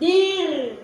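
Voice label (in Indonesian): "Rusa", Indonesian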